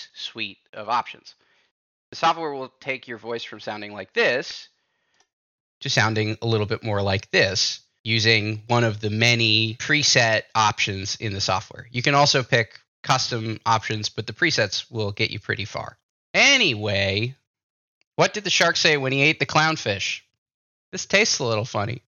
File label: Logitech G733 mic sample